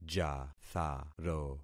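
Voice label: "jatharo", concatenated syllables; Affixing experiment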